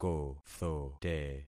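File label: "kothote", concatenated syllables; Affixing experiment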